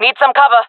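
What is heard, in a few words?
request cover me, female voice; player vo jungle fem; Counter Strike Model Pack; CS:GO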